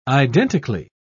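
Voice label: "identically", British English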